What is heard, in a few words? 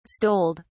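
"stoled", English, British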